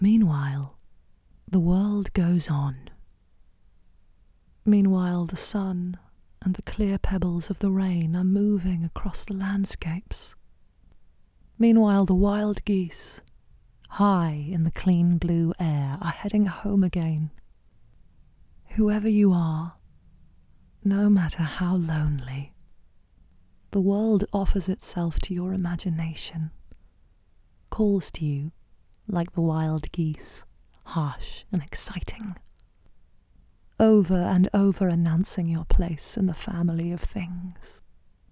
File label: Narration Audio Book